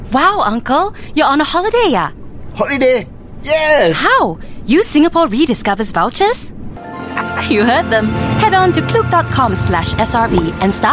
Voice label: Asian English VO